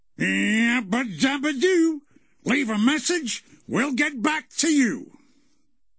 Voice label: answering machine message; cartoon sound fx; fred flintstone; hannah barbera cartoon sound fx

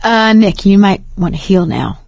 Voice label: suggest health, survivor voice; nick; Left 4 Dead 2; Source Engine; video games; producer

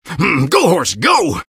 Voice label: taunt cong fun; engy; tf; taunts

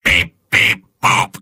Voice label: robot; soldier; video games; source engine; tf; mvm

